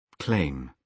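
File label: "claim", British English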